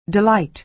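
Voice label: "delight", American English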